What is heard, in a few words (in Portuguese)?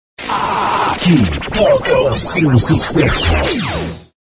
Aqui Toca O Seu Sucesso, Vinhetas